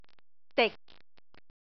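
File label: "dik6", Cantonese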